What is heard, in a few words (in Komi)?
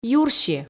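"юрси", Komi, female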